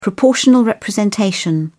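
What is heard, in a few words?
"proportional representation", British English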